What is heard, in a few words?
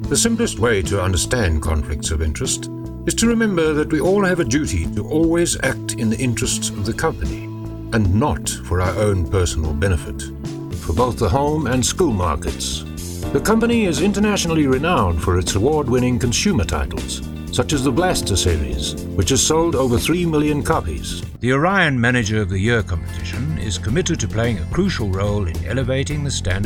Corporate Variety